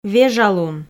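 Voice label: "вежалун", Komi, female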